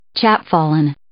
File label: "chapfallen", English